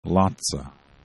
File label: "laca", esperanto